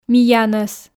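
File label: "миянӧс", Komi, female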